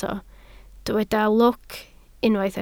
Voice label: "lwc", Welsh

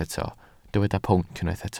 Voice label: "pwnc", Welsh

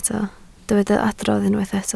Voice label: "adrodd", Welsh

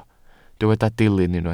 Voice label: "dilyn" Welsh

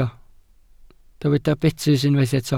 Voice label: "betys", Welsh

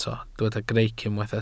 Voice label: "greic", Welsh

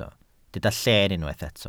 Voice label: "lleen" Welsh

